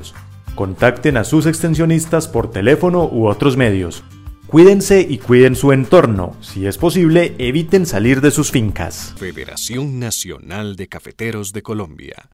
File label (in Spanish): Cuña caficultores Antioquia Coronavirus